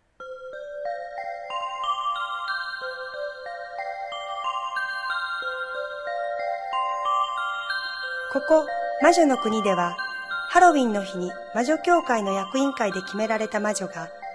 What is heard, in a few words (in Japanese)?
ナレーション